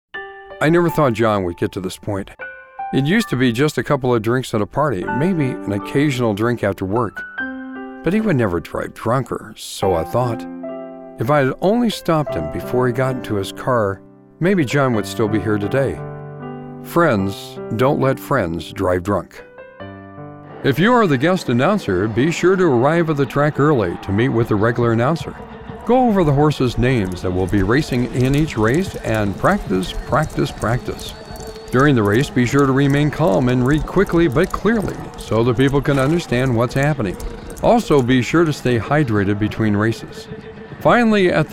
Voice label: narration